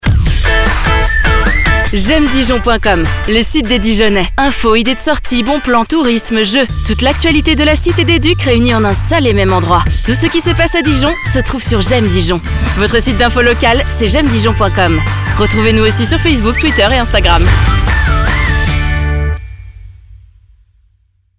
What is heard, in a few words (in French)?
Spot; JAime Dijon